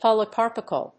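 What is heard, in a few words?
"polycarbophil", English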